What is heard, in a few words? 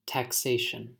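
"taxation", US English; pronunciation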